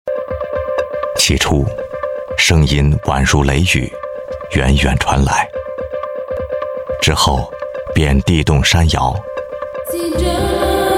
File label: chinese voice over male documentary